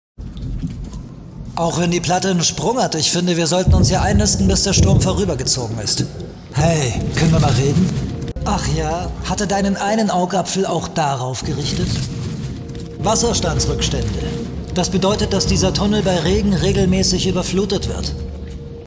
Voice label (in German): Synchron US Serie